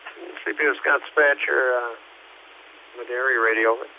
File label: Medary Radio